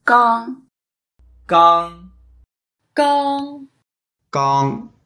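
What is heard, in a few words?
"gang1", Mandarin Chinese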